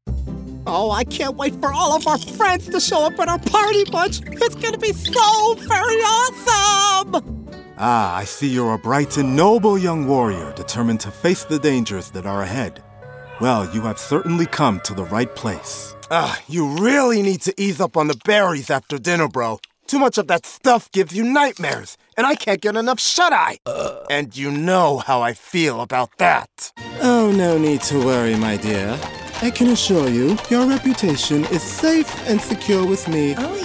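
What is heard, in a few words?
character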